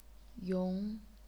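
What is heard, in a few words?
"yong", chinese pinyin, second tone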